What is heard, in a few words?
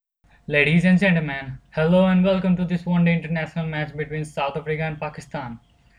english line